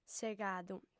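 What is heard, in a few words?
"segadu", Sardinian, female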